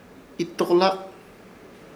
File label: "Itokohlak", Ishir Chamacoco; toro